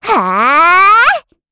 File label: Casting, kr; Estelle; Kings Raid